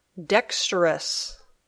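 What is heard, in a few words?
"dexterous", English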